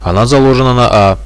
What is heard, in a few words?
bomb here A, radio bot; Reallite